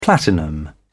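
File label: "platinum", British English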